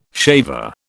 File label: "shaver", British